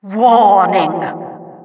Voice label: Announcer warning; Waarschuwing!; Administrator reacties